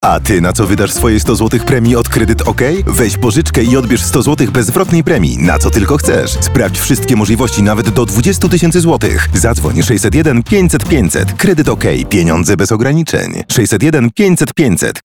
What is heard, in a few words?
Male VO Commercial